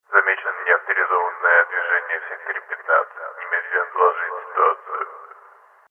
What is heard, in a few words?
announce; Dystopia; exodus